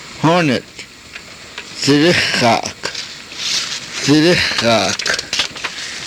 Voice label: "thirixaak", karuk